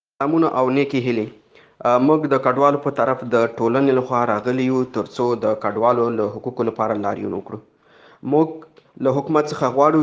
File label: Pashtu